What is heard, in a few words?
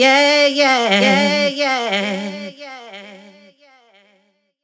echo vox; abmischen tutorial